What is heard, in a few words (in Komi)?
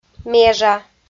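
"межа", Komi, female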